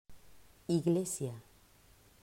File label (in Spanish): "Iglesia", pronunciacion; mausschool